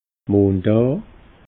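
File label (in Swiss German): "mondaa", Alsatian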